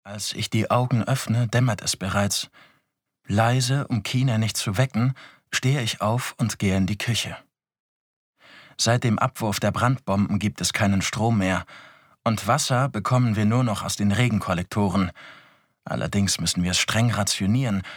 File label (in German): Hörbuch; The Block